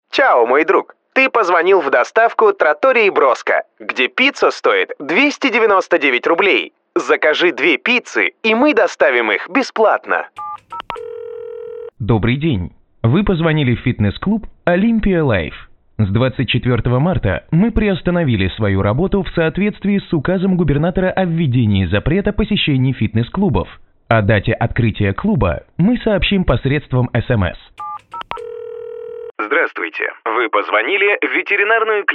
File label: IVR